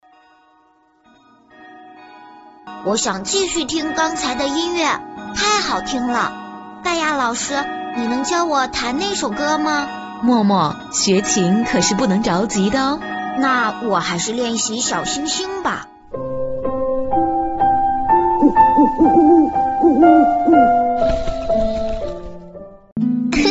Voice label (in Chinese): 多媒体 培训课件 教学 动画 卡通, 女国